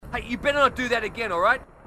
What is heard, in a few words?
marine, aussie; h2dialogue